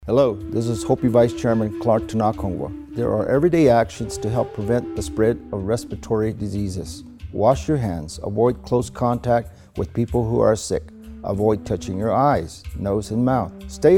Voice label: PSA radio